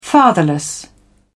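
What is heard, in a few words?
"fatherless", British English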